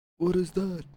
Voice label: oldman; first dialogue

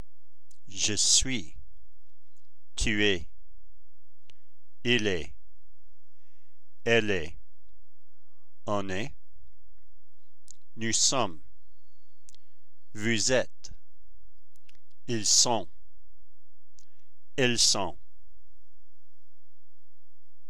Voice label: French Verb être

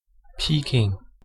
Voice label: Peking pronounced in English way

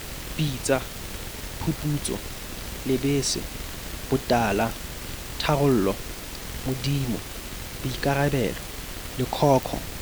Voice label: Sesotho cons plosives

